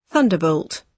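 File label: "thunderbolt", British English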